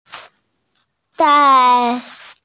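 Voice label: "dai1", Mandarin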